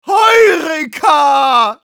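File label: cheers, German; Medic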